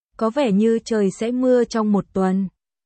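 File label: Vietnamese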